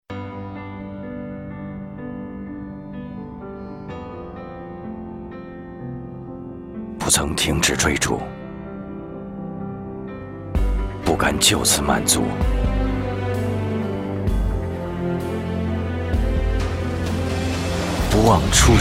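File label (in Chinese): 广告_汽车_大气; 帝豪GL2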